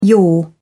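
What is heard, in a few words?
"yaw", British English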